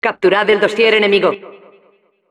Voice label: Announcer capture intel; "Capturad el dossier enemigo."

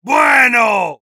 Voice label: Heavy good job, es; "¡Bueno!"

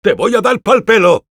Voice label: Engineer taunts, es

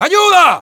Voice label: help me, es; Sniper

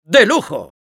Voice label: Spy positive vocalization, es; "¡De lujo!"

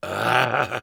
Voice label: Engineer negative vocalization, es

